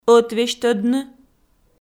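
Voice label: "ӧтвесьтӧдны" Komi, female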